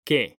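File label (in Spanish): "que", male; mekanta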